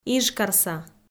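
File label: "ижкарса", Komi, female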